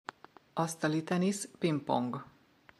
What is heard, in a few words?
asztalitenisz pingpong table tennis ping pong, Hungarian; hungarian sports words flashcards